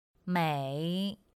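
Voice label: "měi", Mandarin Chinese; pinyin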